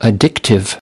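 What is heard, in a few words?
"addictive", English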